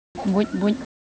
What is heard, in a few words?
Semai Sound